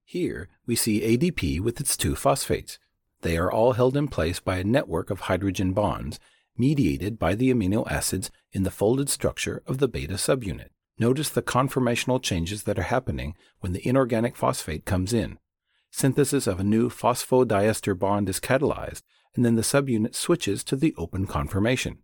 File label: Elearning video